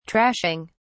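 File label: "trashing", British English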